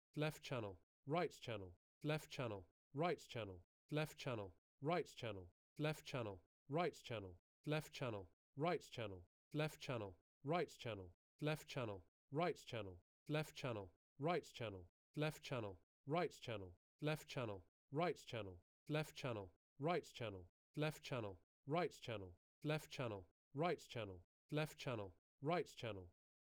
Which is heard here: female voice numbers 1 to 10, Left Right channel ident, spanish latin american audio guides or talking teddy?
Left Right channel ident